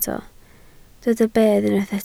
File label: "bedd", Welsh